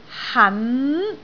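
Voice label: "han2", Cantonese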